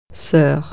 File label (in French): "sister", French